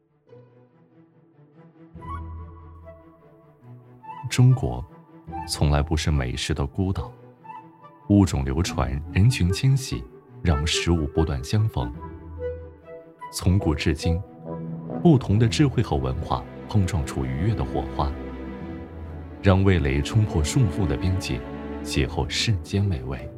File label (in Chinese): 男 纪录片; 舌尖火锅